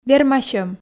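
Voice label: "вермасьӧм", Komi, female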